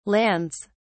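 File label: "lands", British English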